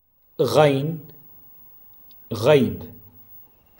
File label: Ghain, Arabic